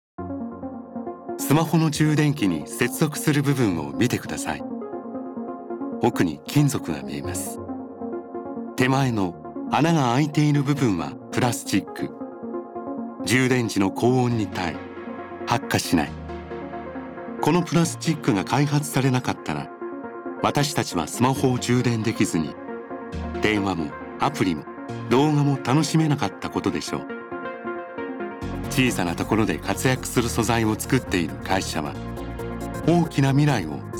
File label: male